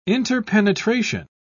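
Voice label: "interpenetration", British English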